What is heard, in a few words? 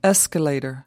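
"escalator", American English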